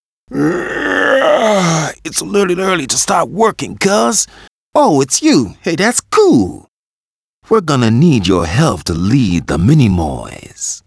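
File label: aim British; arthur and the revenge of maltazard; Wii; Aim Uk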